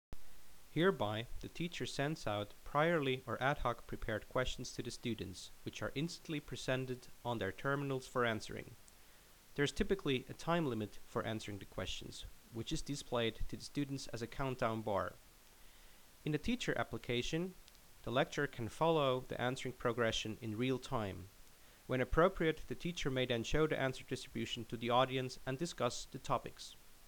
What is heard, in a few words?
Narration